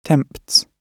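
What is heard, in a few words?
"tempts", British English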